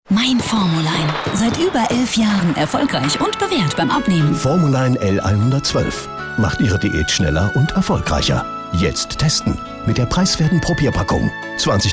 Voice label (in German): Freundlich